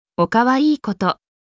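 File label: anime, Japanese, Hilarious Anime Quotes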